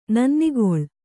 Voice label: "ನನ್ನಿಗೊಳ್", Kannada

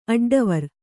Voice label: "ಅಡ್ಡವರಿ", Kannada

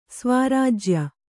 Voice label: "ಸ್ವರಾಜ್ಯ", Kannada

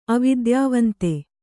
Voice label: "ಅವಿದ್ಯಾವಂತೆ", Kannada

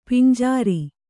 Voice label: "ಪಂಜರ", kannada

